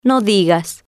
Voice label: "no Digas", spanish

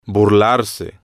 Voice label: "burlarse", Spanish

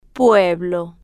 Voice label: "pueblo", Spanish

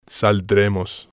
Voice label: "saldremos", spanish

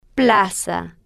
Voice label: "plaza", Spanish

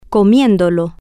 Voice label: "co-mien-do-lo", Spanish

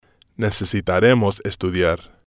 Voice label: "necesitaremos Estudiar", spanish

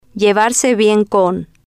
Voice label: "llevarse bien con", Spanish

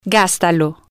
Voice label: "gastalo", spanish

